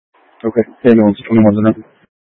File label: Fire Department Dispatch; Grand Junction